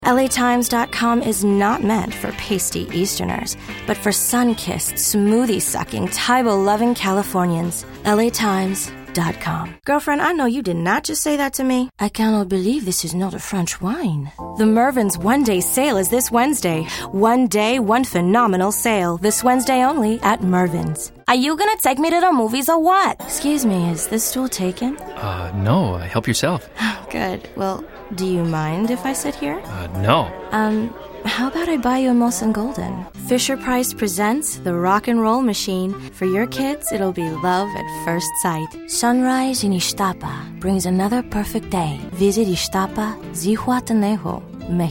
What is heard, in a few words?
female voice; voice talent; television and radio commercials, industrials, cd-roms, cartoon